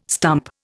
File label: "stump", Indian